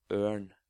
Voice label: "Ørn", Norwegian

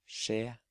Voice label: "Skje", Norwegian

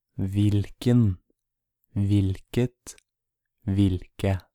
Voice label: Hvilken hvilket hvilke, Norwegian

